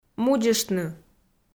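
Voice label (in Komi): "мудзыштны", Komi, female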